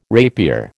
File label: "rapier", American